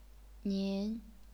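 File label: "nin", second tone, chinese pinyin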